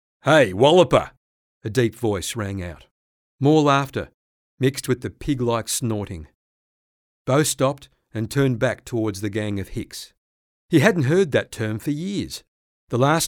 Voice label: english australian audiobooks